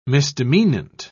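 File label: "misdemeanant", British English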